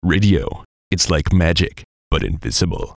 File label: VOICE COMICAL; Radio Voice -Like Magic